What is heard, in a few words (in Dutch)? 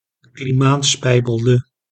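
"klimaatspijbelde", Dutch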